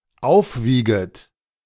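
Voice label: "aufwieget", German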